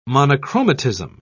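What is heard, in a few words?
"monochromatism", English, British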